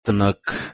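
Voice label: "tnh2uk", Proto-Indo-European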